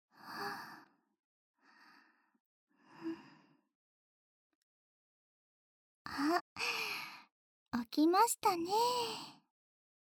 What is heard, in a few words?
ASMR; 柔らかさには自信があります♪ お隣さんの甘やかし授乳手コキ＆密着交尾（効果音カット）